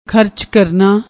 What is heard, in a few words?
"kharach karna", Punjabi; ਖਰਚ ਕਰਨਾ